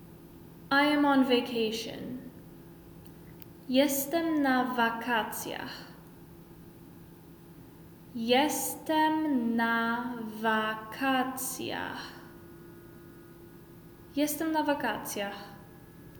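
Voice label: I am on vacation, Polish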